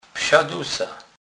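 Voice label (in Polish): "psa dusa", Kurpie dialect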